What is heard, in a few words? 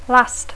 "last", English; adjectiu; últim; darrer; passat